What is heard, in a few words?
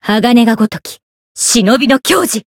Voice chant, jp